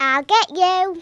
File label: ill get you, Kidz; Worms Armageddon